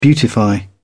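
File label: "beautify", British English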